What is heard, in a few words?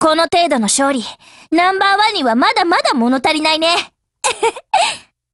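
WIN Japanese, Voice; GF Alarm; KLIN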